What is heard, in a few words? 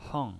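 "heng", Mandarin Chinese, fourth tone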